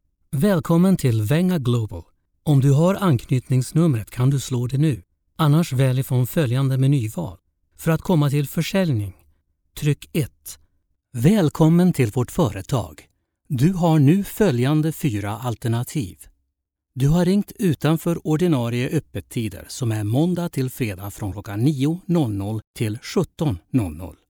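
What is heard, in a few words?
Telephony Swedish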